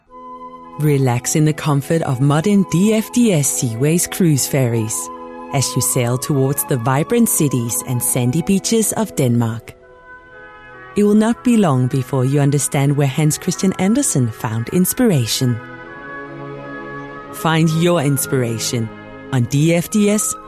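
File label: Danish English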